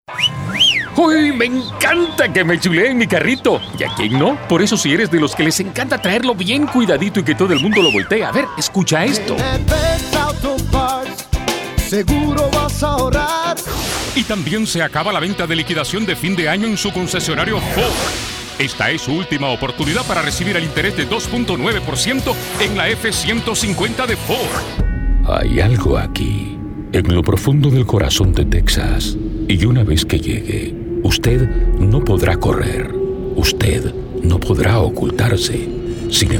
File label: COMMERCIAL